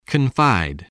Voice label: "confide", english

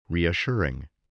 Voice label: "reassuring", voice spelling

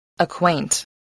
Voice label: "acquaint", English voice

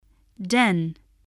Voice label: "den", English

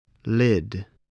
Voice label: "lid", spelling

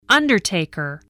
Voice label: "undertaker", English spelling voice

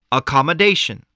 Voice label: "accommodation", English voice

